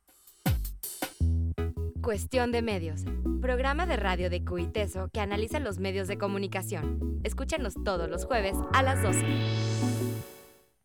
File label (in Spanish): Anuncio mezcla